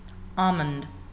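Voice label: "almond", American, female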